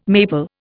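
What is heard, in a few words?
"maple", Indian English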